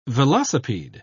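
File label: "velocipede", British English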